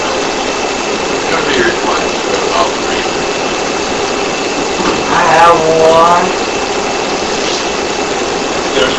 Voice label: Living Room Unexplained Voice (I have one) AMPLIFIED